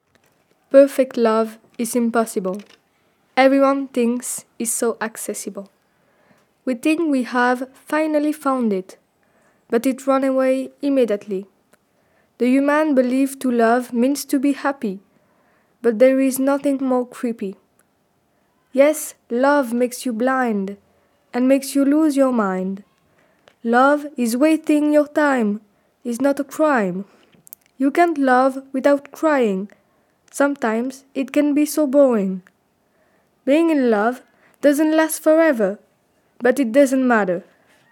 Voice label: english poetry